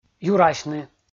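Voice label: "юрасьны", Komi, male; kpv audio dict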